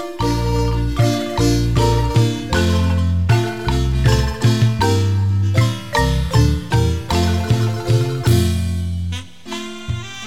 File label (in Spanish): poema el locutor